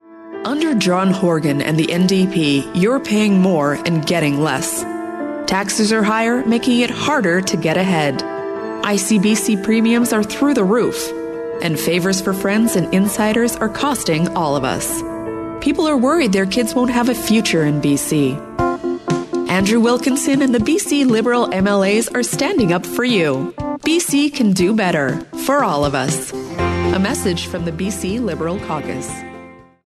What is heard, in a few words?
high taxes ad; BC Liberal Caucus